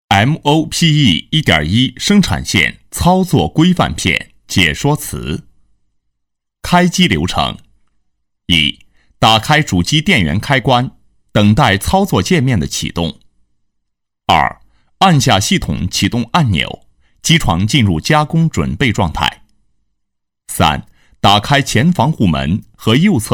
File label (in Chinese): 男 解说类 机床操作, 国语男声配音